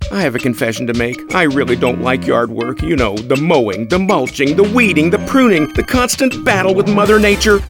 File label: Bright